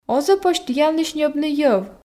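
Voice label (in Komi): оз-ӧ позь тіянлысь ньӧбны йӧв, Komi, female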